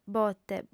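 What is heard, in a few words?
"bote", female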